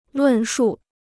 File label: "论述", Chinese